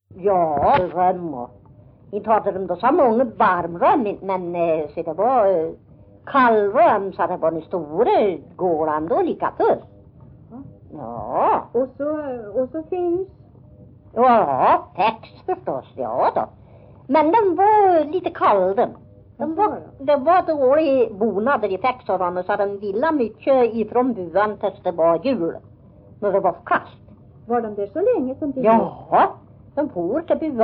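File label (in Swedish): Järvsö Hälsingland, dialekter, svenska sagor; Folkminnen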